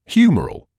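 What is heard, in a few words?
"humoral", British English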